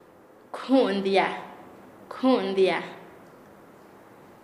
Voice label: Nasa Yuwe; estregar; paez